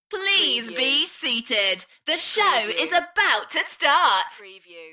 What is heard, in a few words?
UK female, Enthusiastic; beanstalk-audio